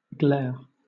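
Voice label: "glare", British English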